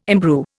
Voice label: "imbrue", Indian

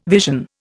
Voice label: "vision", Indian

